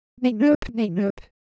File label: Korean Sound, ideophones